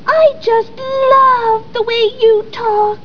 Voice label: love talk